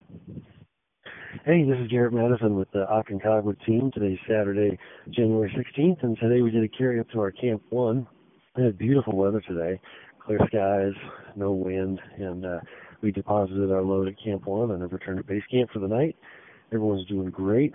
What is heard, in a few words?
voicemail